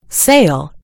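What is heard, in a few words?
"sail", American English; gcompris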